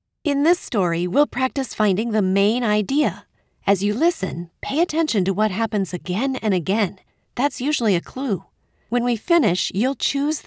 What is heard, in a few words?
E learn Reading